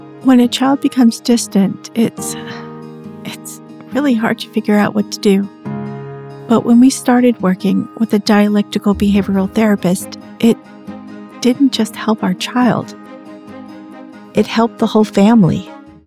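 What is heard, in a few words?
Healthcare Real Person Hopeful, Professional Female Voice Actor; DBT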